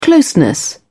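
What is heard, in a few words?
"closeness", British English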